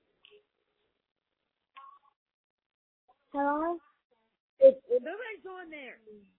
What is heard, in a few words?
Voicemail